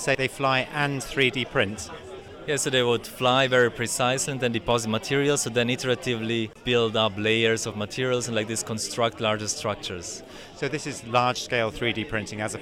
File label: robot drones interview